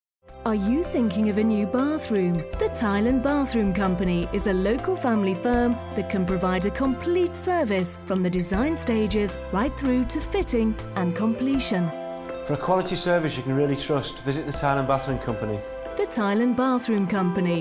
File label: Television Commercials